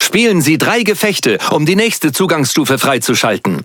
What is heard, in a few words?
Speech Access Level Unlock, DE; World Of Warships